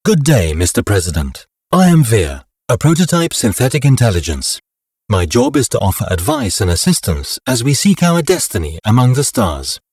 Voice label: generic democratic intro male; Stellaris; tutorial